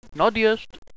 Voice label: overpass, radio bot